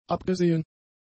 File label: "abgesehen", German; adjectives